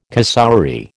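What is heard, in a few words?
"cassowary", American English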